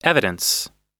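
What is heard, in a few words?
"evidence", American English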